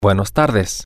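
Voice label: good Afternoon, Spanish, male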